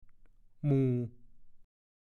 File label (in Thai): "หมู่", ภาษาโคราช; เพื่อน